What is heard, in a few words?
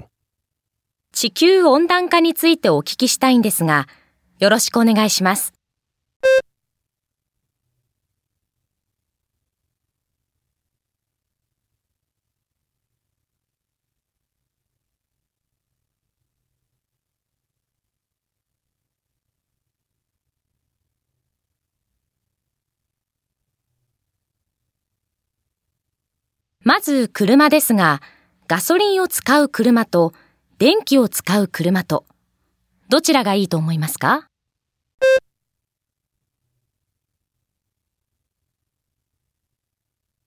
japanese conversation